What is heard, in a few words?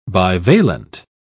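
"bivalent", British English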